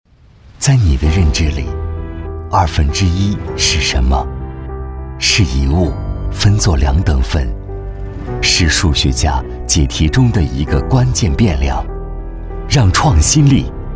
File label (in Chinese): 男 旁白 走心 由慢到快